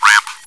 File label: chitchat; svencoop